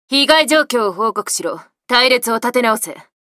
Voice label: Voice victory